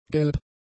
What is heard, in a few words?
"gelb", German; adjectives